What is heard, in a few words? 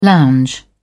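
"lounge", British English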